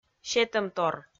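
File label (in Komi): "сетӧмтор", Komi, female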